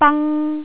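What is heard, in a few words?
"bang1", Cantonese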